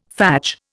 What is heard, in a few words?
"fadge", Indian